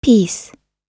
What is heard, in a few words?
peace, US English